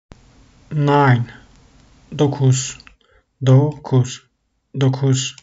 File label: nine 9, Turkish; the talking turkish phrasebook